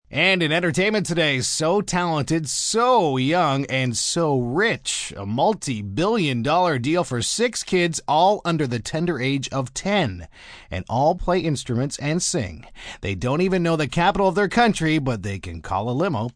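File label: News 6 Kids, Broadcast, news, male news item on entertainment, child musicians with humour; human voice speaking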